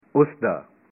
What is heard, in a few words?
his, punjabi